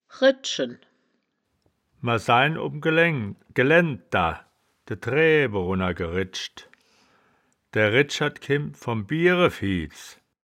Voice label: "redschen", Wittlicher; das wittlicher woerterbuch; woerterbuch vertonung

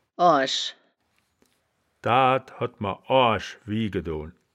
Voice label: "oarisch", wittlich

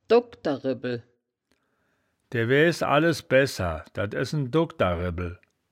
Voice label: "dogkder ribpel", wittlicher

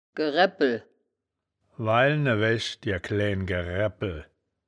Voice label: "geraebpel", wittlich

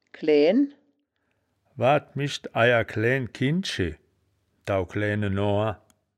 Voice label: "klaeaen", wittlich

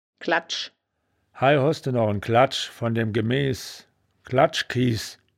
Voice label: "kladsch", wittlicher; das wittlicher woerterbuch; woerterbuch vertonung

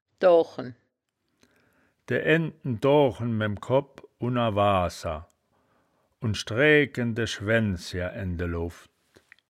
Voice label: "doochen", wittlicher

